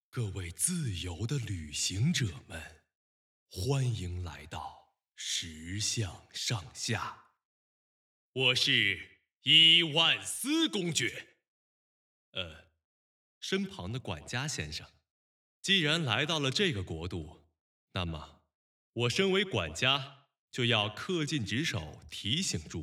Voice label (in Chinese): 石像上下进场须知, 男配音, 青年（18-35）, 普通话, 年轻磁性